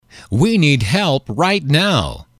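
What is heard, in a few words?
we need help right now, male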